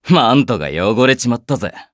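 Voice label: victory, Japanese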